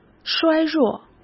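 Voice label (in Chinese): "衰弱", Mandarin Chinese; hsk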